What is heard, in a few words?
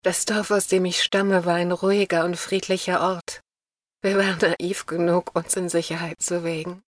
Sad